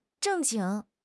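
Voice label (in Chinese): "正经", Chinese; HSK6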